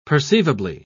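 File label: "perceivably", British English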